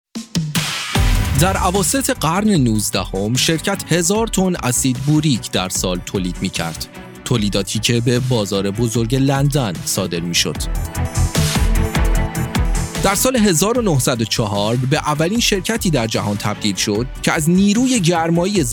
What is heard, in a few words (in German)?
Werbevideos